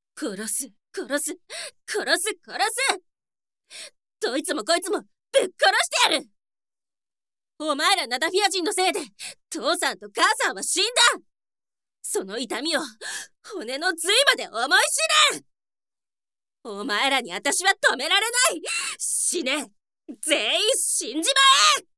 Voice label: angry girl